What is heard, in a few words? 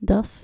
"das" dutch